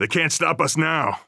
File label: pep talk